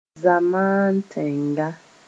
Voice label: "zamaan-tẽnga", moore

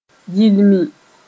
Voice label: "yilmi", moore

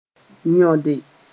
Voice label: "yõde", moore

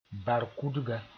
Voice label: "barkudga", moore; tree sp

